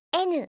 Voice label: "n", alphabet